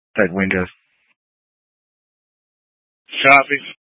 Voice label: Incident Tac, scanner; Incident Tac 1